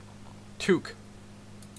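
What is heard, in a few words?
"toque", Canadian English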